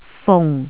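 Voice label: "fung4", Cantonese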